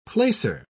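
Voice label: "placer", British English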